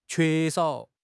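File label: "que1 shao3", Mandarin Chinese; HSK4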